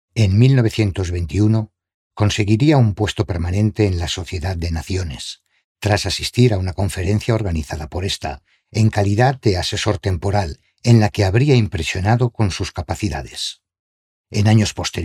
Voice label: locutor spanish voiceover

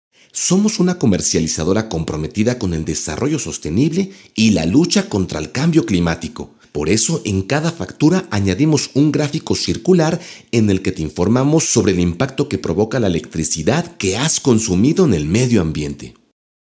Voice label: locutor mexico mexican voiceover; Mexican male voice talent

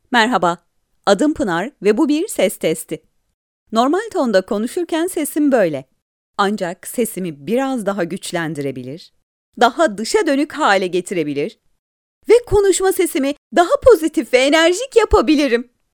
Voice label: locutora turca turkish voiceover

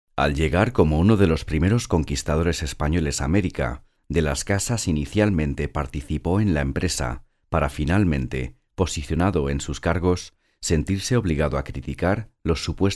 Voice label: locutor espanol spanish voiceover

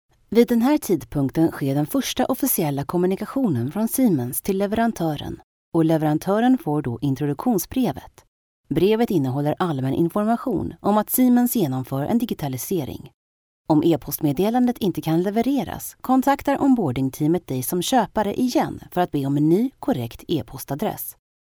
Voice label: locutora sueca swedish voiceover

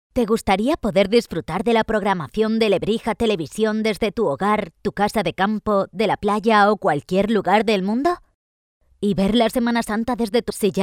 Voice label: locutora spanish voiceover

